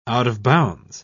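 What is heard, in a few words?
"out-of-bounds", British English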